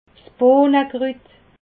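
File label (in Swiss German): "bohnekrut", Alsatian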